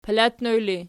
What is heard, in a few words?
"palatnoj", Archi